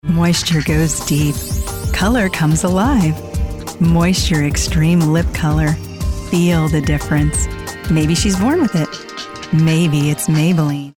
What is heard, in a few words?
ad; Maybelline